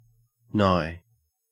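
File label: "nigh", Australian English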